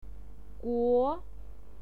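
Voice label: "guo", Mandarin Chinese, second tone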